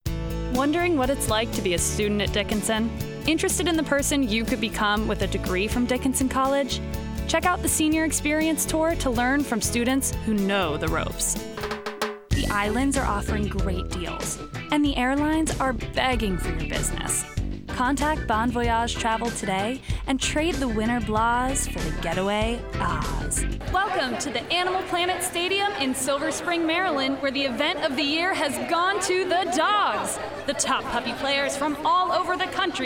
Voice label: Narration